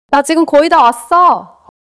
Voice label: neutral, monologue

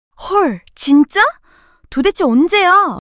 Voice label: surprise, monologue

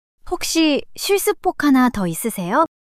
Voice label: shy; monologue

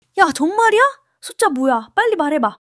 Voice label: excitement

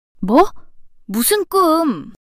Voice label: fluster, monologue

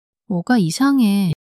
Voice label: sulk, monologue

